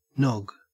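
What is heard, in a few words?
"nog", Australian English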